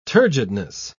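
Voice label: "turgidness", British English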